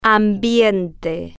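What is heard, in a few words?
"ambiente", Spanish, female, slow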